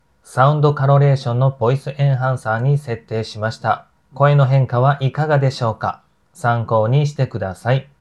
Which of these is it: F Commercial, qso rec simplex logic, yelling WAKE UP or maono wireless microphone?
maono wireless microphone